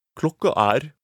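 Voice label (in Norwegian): "klokka er", Norwegian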